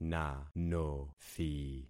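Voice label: "nanothi", concatenated syllables; Affixing experiment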